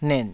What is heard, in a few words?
"net3", taiwanese syllables